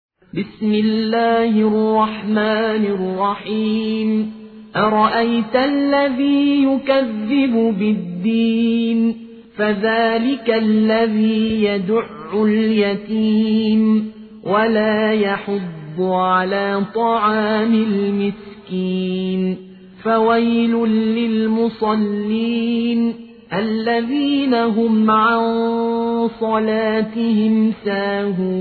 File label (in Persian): سوره ماعون حفص عن عاصم